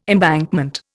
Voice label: "embankment", Indian